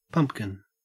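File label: "pumpkin", Australian English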